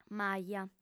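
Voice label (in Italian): "maja", lingua sarda, fem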